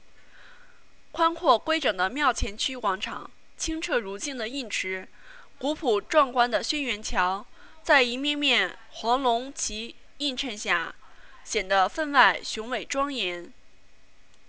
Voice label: Chinese speech; SigmaStar; SSC9381G 9351 Pudding